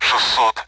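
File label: Speech Sound Russian Common AWACS Numbers; DCS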